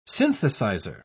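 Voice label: "synthesiser", British English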